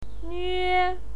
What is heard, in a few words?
"nue1", pinyin